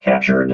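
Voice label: "captured", robot voice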